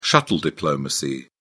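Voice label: "shuttle diplomacy", British English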